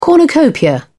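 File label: "cornucopia", British English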